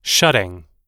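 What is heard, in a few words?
"shutting", American English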